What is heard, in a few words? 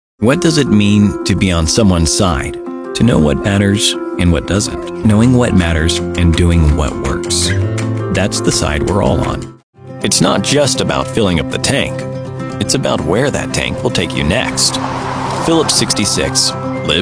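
English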